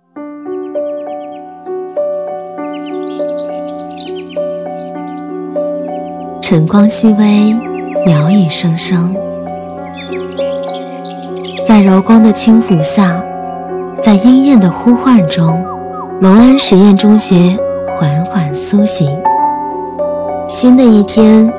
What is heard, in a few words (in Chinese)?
女 学校宣传 抒情温馨; 舒缓抒情; 龙实中学